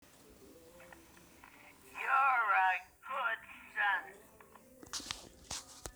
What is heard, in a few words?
MOMS VOICE RECORDING